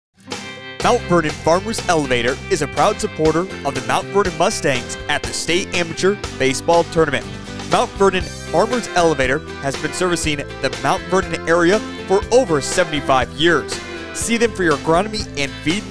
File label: Commercial; Mt. Vernon Famrers Elevator